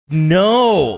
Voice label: drawn out no